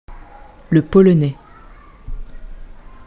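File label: "le polonais", pronunciation, French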